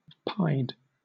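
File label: "pied", British English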